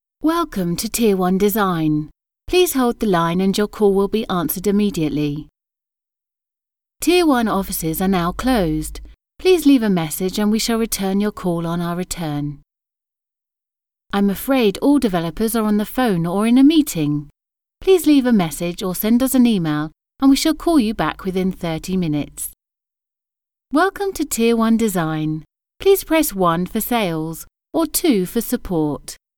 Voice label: ivr; tieronedesign